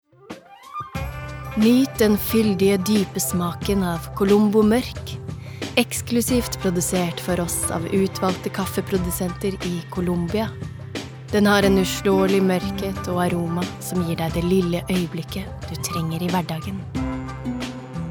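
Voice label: Norwegian soft sell, female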